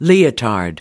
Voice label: "leotard", us